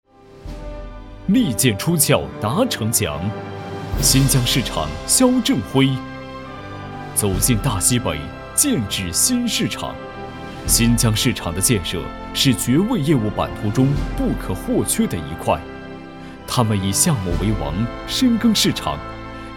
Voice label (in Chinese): 男 颁奖; 绝味春雷行动